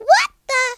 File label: what the, Southern Belle; speech